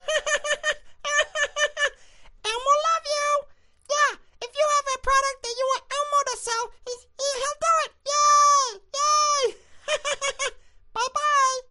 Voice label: Character; Elmo